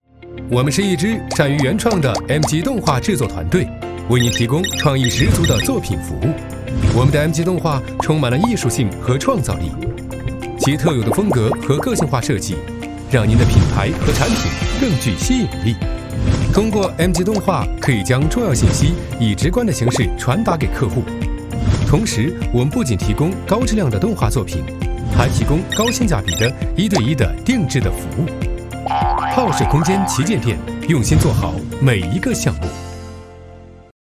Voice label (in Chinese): 男 MG 专业团队 专业 活力; 中英双语; 年轻稳重